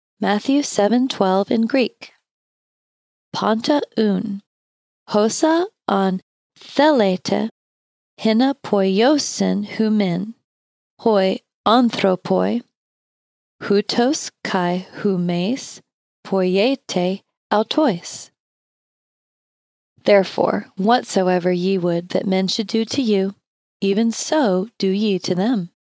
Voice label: Matthew 7:12 in Greek slowly